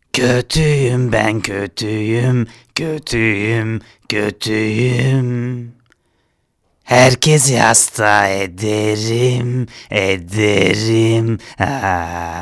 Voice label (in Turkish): REKLAM